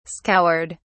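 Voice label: "scoured", British English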